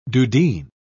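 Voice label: "dudeen", British English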